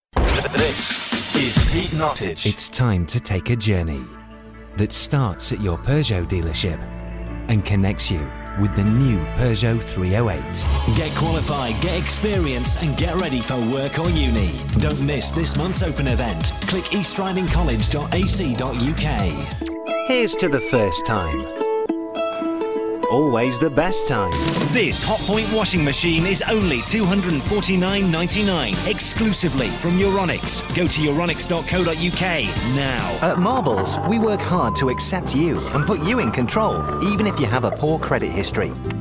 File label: commercial